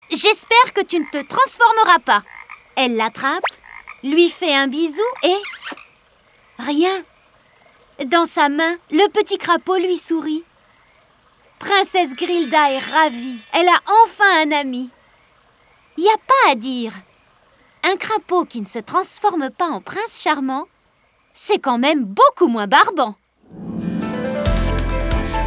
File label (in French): LIVRE JEUNESSE; Princesse Grilda